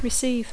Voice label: "receive", English